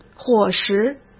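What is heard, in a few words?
"伙食", Mandarin Chinese; chinese-flashcards; hsk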